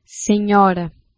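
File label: "senhora" Portuguese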